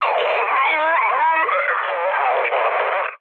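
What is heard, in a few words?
Polish damaged pilot mood high; War Thunder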